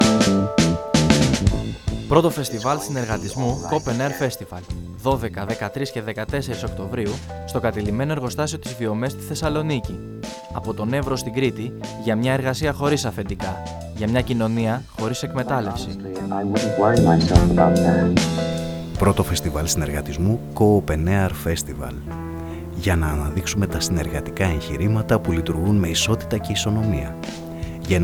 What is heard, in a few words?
Radio Spot; 1COOPENAIRFESTIVAL